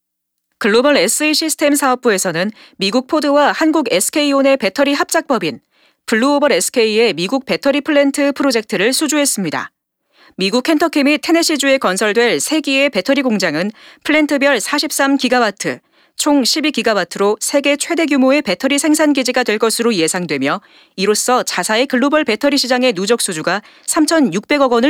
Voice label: 뉴스 아나운서; LS일렉트릭

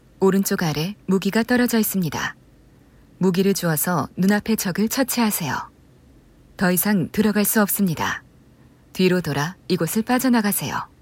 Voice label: 안내멘트 차분한

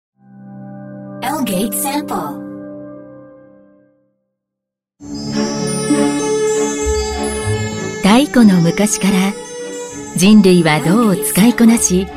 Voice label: 일본 여 기본톤; 풍산-그룹

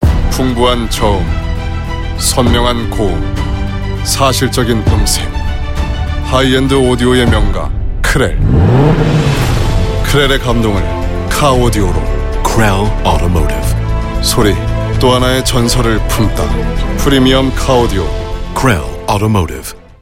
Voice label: 마초적인 톤; 크렐 카오디오

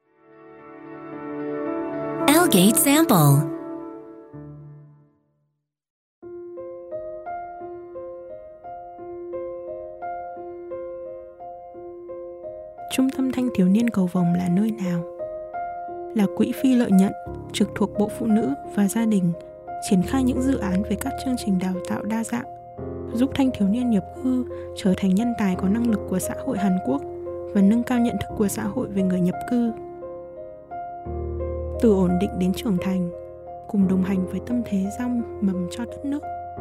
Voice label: 베트남 여 차분한 톤

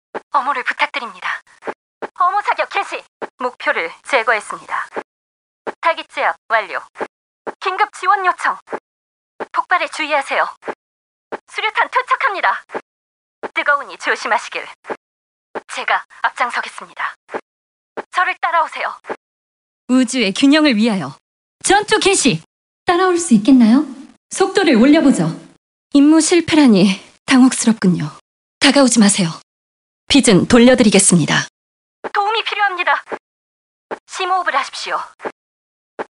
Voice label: 20대 여군 시크한; 초월자 여군; 카운터스트라이크

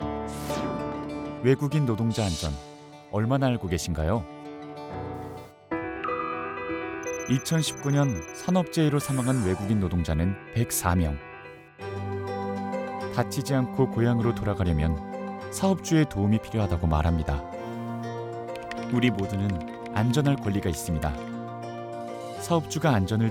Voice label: NA 캠페인 공익광고

